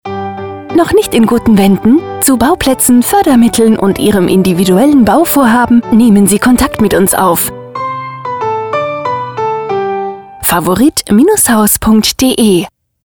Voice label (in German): Massivhaus Funkspot, Radio; FAVORIT; hellwegradio